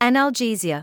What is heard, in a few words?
"analgesia", English